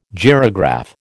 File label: "gyrograph", American